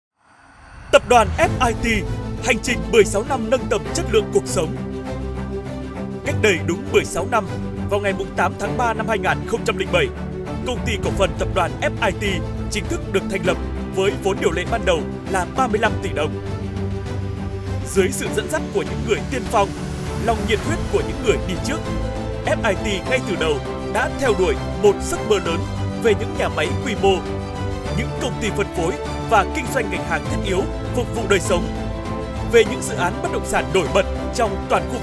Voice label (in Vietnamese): GIONG NAM MIEN NAM; TAP DOAN F.I.T